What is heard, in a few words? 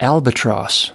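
"albatross", American English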